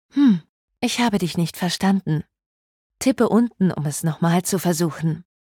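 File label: wake up error, German; Bixby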